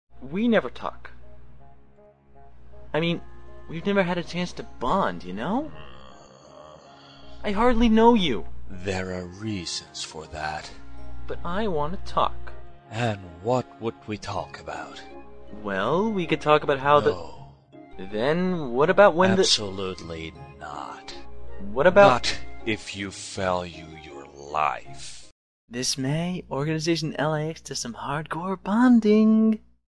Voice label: Commercial